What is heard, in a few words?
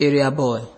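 "area boy", Nigerian Pidgin, male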